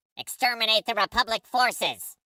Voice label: charge droid, Voices Emotes